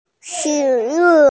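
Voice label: "shi2wu4", Mandarin Chinese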